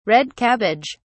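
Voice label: "red cabbage", British English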